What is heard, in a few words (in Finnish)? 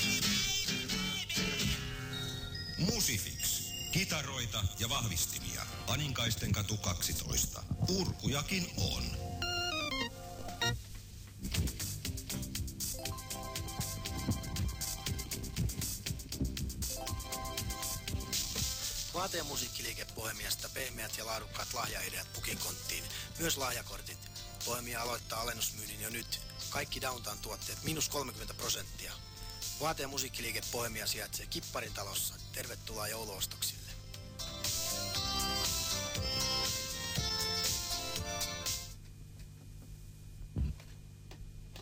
mainoksia